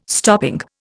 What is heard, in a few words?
"stopping", Indian